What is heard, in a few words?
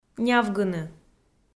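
"нявгыны", Komi, female; kpv audio dict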